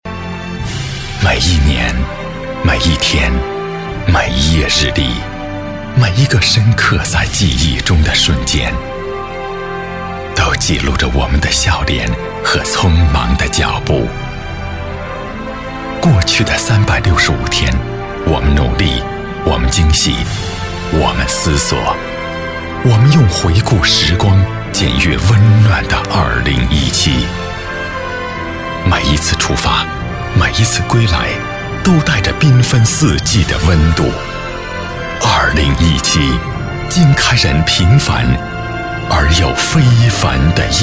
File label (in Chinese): 男 专题 回顾展望片